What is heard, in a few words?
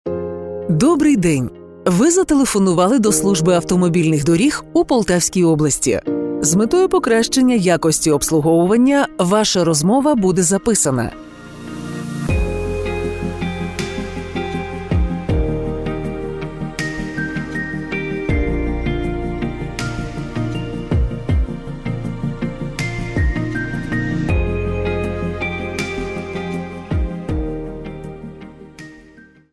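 interactive voice response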